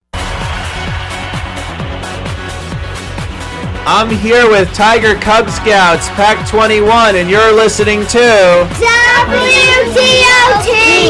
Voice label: station id; tiger